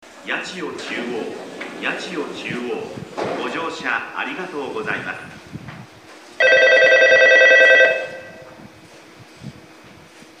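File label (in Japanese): 到着発車, 駅放送, ベルの音に比して、放送音量は小さく響きません; 到着発車 音質が悪め; yachiyo-chuo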